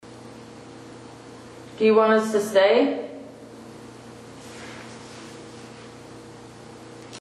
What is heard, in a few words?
"yes", 4th floor, sanitorium; recorder